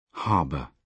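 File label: "harbour", British English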